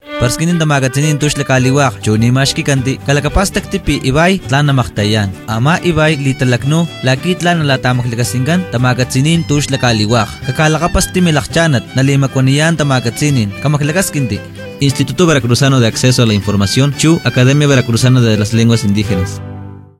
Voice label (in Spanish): totonaca spot